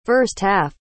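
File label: "First half", English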